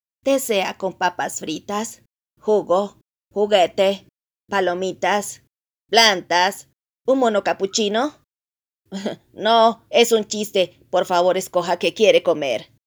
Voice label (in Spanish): Voz Chica mostrador; personajes chica carton